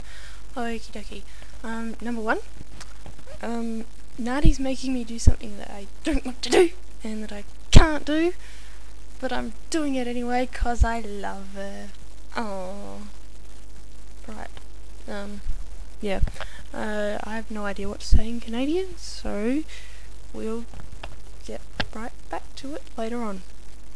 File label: real aussie